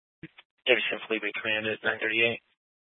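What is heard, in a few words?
Incident Tac 1, Scanner audio recording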